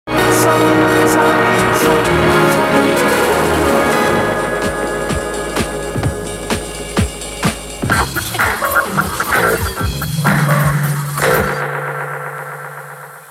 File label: FM